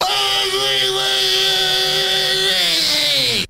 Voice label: ahweebwahyehbaybee, gibbrish; Voice